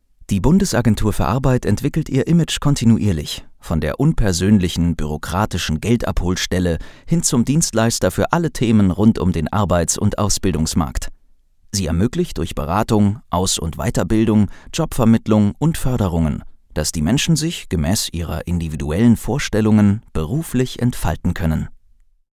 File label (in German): Fremdtake Neutral